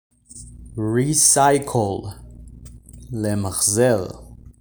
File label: "recycle" "למחזר"